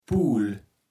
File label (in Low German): "Puul", Platt